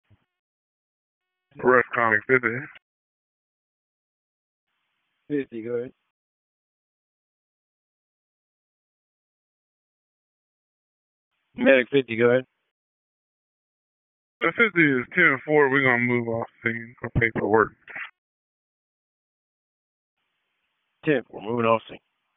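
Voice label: EMS DISP, radio; wake p25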